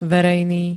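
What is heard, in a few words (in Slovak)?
"verejný", Slovak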